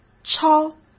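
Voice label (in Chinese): "超", Mandarin Chinese; chinese flashcards; hsk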